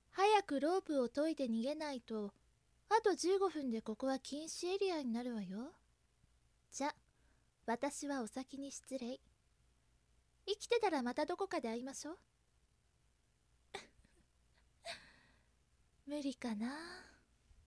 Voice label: cool, voice